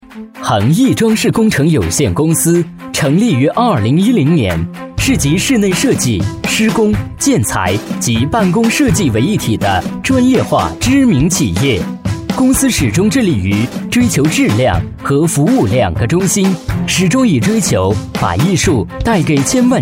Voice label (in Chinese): 男, 装饰广告; 飞碟说